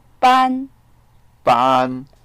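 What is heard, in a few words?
"ban4", Mandarin Chinese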